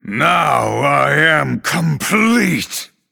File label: Male Night Elf Metamorphosis